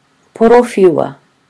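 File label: "porowhiua", Māori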